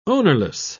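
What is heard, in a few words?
"ownerless", British English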